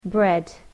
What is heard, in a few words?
"bread", English